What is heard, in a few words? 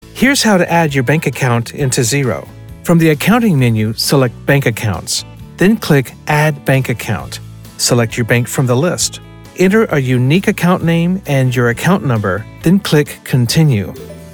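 elearning, Male Voice Actor; howto software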